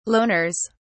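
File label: "loners", British English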